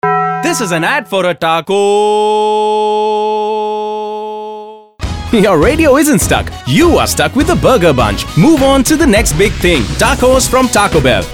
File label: English, male voice